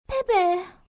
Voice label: Lose Voice; RandomGFGoStop